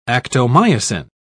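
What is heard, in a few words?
"actomyosin", English, British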